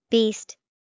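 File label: beast, English vocabulary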